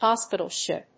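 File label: "Hospital ship", English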